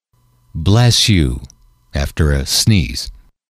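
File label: bless you sneeze, male, English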